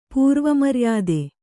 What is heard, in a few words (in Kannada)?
"ಪೂರ್ವಮರ್ಯಾದೆ", Kannada